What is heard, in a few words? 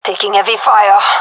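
pinned, gendarmerie female; vo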